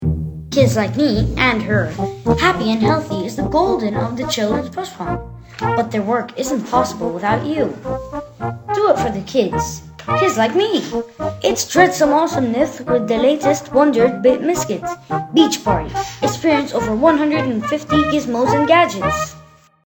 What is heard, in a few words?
Lebanese English accent child boy; Forest Studios